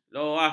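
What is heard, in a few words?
"luah", Malay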